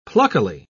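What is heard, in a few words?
"pluckily", British English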